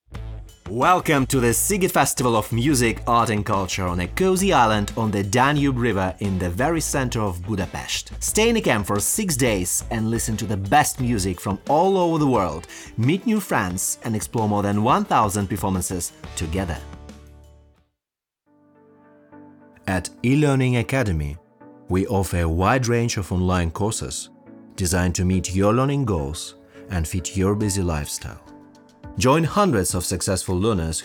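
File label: Commercial, English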